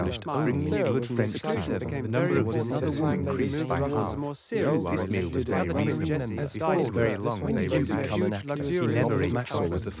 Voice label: male inst mix; SiSEC11; underdetermined